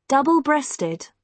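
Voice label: "double breasted", British English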